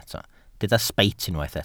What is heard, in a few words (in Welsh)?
"sbeit", Welsh